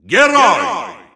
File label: Russian Announcer; Hero; SSBU